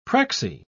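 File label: "prexy", British English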